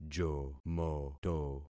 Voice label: "jomoto", concat syllables; Affixing experiment